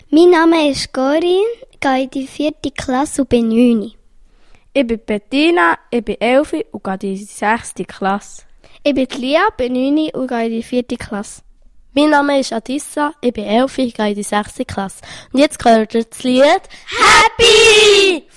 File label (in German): Anmoderation; Ebnit 2